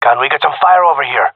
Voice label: request fire, gendermerie male; vo

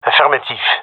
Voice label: affirmation, gendarmerie male; vo; agents

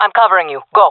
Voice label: covering friend, fem; player vo jungle fem

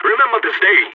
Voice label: last man standing, balkan

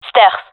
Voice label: stairs, fem; gendarmerie fem epic

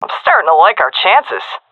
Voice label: round winning, vo fem; agents; Source Model Packs; Counter Strike Model Pack; CS2

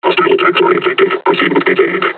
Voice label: taunt, combine charger; Half Life Alyx

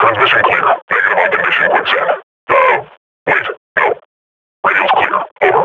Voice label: idle, grunt; Half Life Model Pack

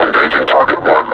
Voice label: announce attack, combine suppressor; alyx; Half Life Alyx

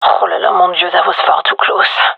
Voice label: close call, gendarmerie fem; vo

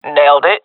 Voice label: sees enemy killed, swat agents; Source Model Packs; Counter Strike Model Pack; CS2; swat epic

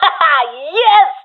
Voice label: cheer, professional fem; vo; Counter Strike Model Pack; CS:GO

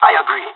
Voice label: agree, pirate; Counter Strike Model Pack; CS:GO

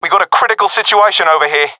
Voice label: round losing, professional; vo; Counter Strike Model Pack; CS:GO

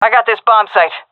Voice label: guarding bombsite, seal fem; vo; Counter Strike Model Pack; CS:GO

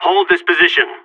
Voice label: radio bot hold, seal, player vo; Counter Strike; CS:GO

